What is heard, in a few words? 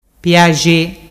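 "piaxe", Ligurian dialect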